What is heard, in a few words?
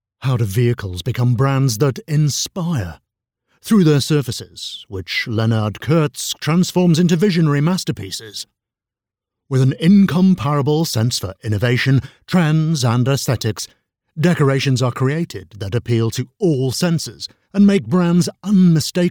voiceover commercial art marketing; kurtz